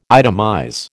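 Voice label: "itemize", American English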